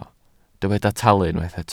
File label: "talu", Welsh